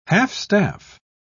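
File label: "half-staff", British English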